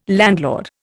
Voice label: "landlord", Indian